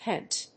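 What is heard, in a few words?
"pent", English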